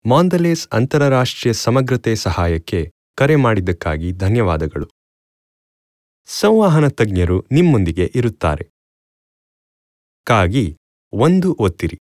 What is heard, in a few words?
IVR